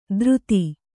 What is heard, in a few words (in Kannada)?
"ಧೃತಿ", kannada